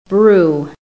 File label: "BREW", american; PHONETIC